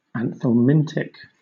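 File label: "anthelmintic", British English; pronunciations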